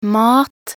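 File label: "mat", Norwegian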